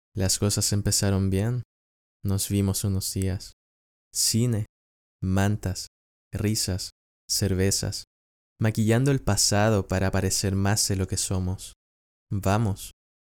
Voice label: male spanish latam neutral spanish latam narration